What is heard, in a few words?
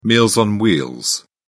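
"meals on wheels", British English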